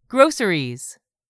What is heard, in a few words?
"GROCERIES", english; 3000 words